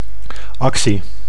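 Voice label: "actie", Dutch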